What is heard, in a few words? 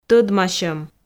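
"тӧдмасьӧм", Komi, female; audio dict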